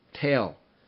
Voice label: "tail", Minimal Pairs; Rhymes ail